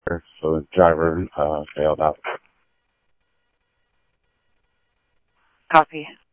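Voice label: Mesa Police Department, scanner audio trunk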